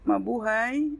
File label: tagalog; POP UP Zagreb